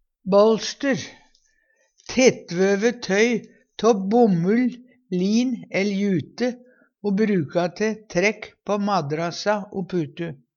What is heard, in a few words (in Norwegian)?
"bålster", Numedalsmål